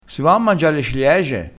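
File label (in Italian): "se ci si chiappa", dialettale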